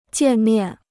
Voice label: "见面", Chinese

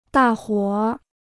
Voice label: "大伙儿", Chinese

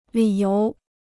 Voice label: "理由", Chinese; lǐ yóu; reason; grounds

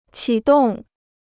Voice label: "启动", Chinese

